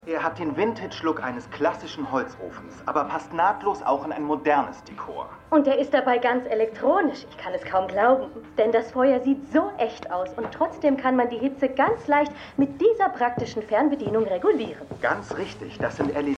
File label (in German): Moderator Moderatorin; Jessica Jones